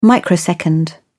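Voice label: "microsecond", British English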